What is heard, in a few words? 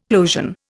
"plosion", Indian; Dictionary